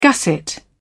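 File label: "gusset", British English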